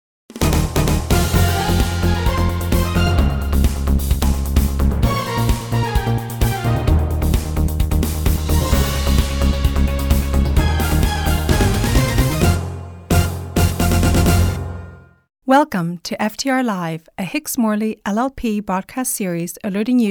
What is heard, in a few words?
Podcast; Hicks Morley